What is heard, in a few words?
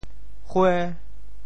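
"hue5", Teochew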